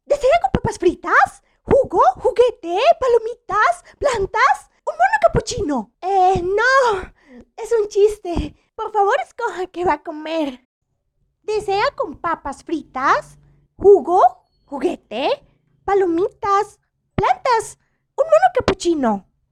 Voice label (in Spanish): Voz Chica mostrador; personajes chica carton